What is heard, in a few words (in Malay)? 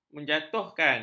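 "menjatuhkan", Malay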